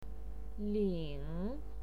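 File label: "ling", Mandarin Chinese, third tone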